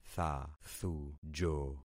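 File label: "thathujo", concatenated syllables; Affixing experiment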